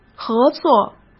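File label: "合作", Mandarin; chinese-flashcards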